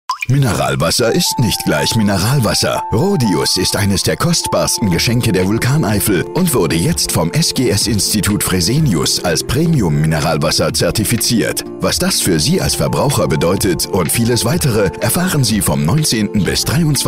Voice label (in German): Aktionswoche Trailer; Rhodius